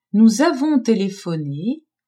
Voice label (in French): "nous avons telephone", French; telephoner